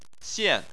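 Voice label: "xian", Chinese, fourth tone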